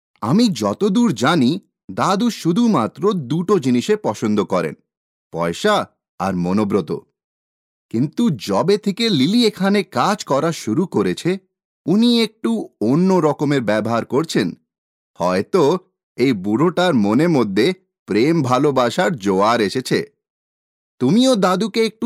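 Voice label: Bengali, Bangladesh, male, voice over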